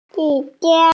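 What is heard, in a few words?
"time", Mandarin, hearing-impaired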